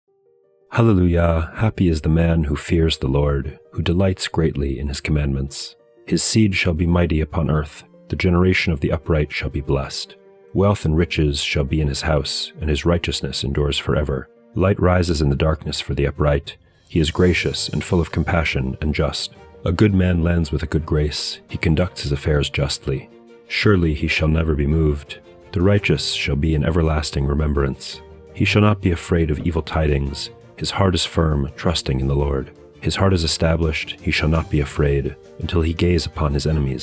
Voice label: poetry and prayer psalm english